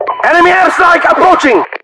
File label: enemy air, voiceovers english